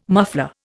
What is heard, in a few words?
"muffler", Indian